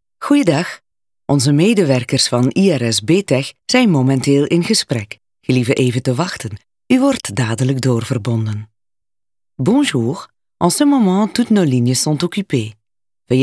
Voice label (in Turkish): Belcika Kadin Flemenkce; seslendirme